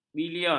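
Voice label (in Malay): "bilion", Malay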